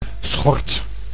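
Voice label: "schort", Dutch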